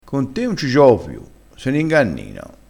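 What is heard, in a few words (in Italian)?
"ingannino", vocabolario dialettale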